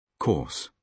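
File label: "course", British English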